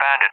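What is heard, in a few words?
"bandit", English, UK; Target; DCS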